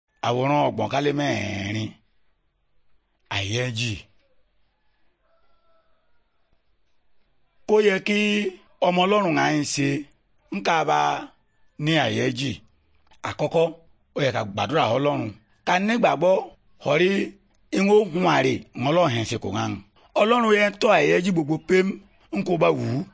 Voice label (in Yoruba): good news yoruba, bible